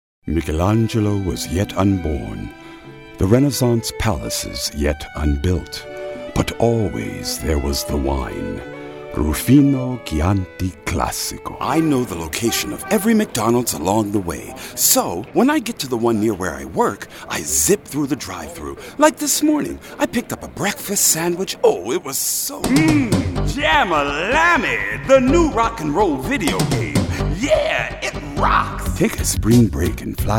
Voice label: Commercial